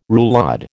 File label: "roulade", American